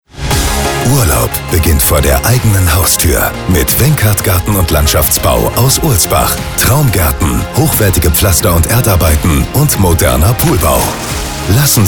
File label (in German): Musik, Radiospot Beispiele Gartenbau & Poolbau, Zuverlässig, strukturiert, klar